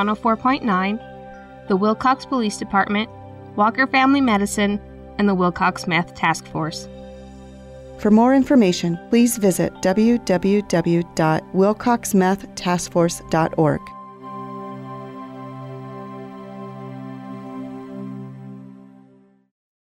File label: Radio Ad; WMTF; meth